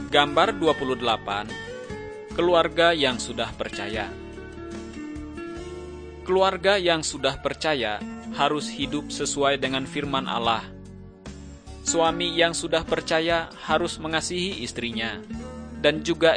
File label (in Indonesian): kabar baik good news bahasa indonesia